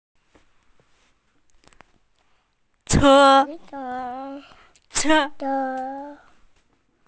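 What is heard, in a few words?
"che1", Mandarin Chinese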